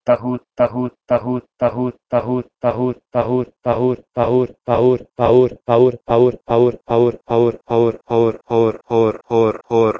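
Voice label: Proto-Indo-European pahur to Balochi phor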